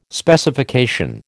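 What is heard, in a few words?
"Specification", American English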